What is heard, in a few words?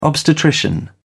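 "obstetrician", British English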